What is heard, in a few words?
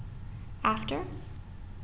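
"after", American, female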